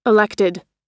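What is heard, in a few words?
"elected", American English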